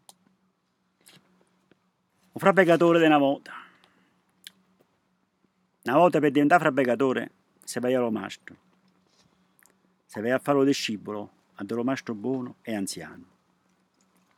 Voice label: Muratore dialetto

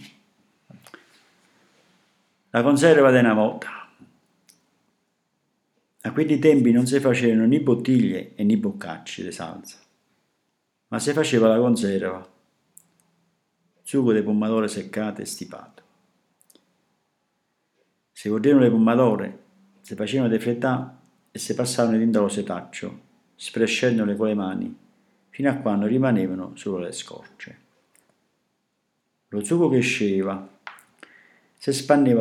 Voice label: Le conserve dialetto

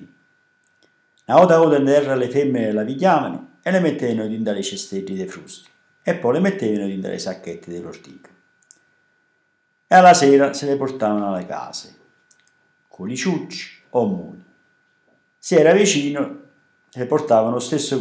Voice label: La raccolta delle olive dialetto

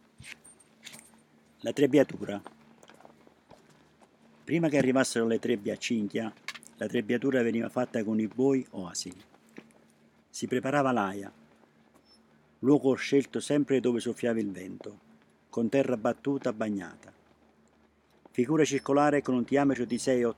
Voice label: Scognatura italiano

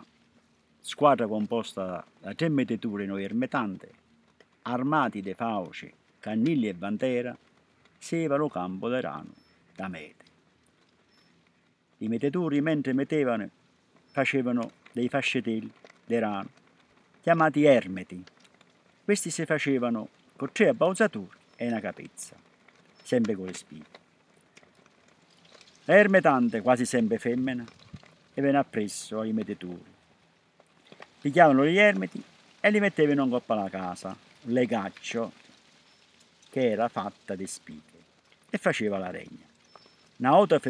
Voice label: Mietitura dialetto

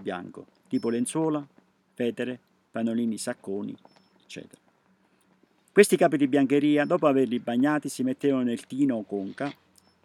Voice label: Colata italiano

